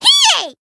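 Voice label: Voice attack, Japanese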